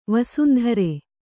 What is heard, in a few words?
"ವಸುಂಧರೆ", Kannada